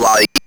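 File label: ROBVOX, robot voice; E-Lab; X Static Goldmine 1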